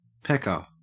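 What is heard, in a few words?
"pecker" English, Australian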